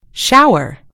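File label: "shower", American English; gcompris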